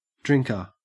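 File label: "drinker", Australian English; pronunciations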